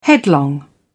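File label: "headlong", British English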